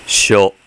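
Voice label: "syo", Japanese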